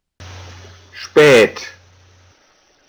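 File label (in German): "spaet", German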